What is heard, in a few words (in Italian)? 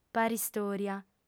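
"paristòria", lingua sarda, fem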